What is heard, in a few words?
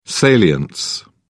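"salience", British English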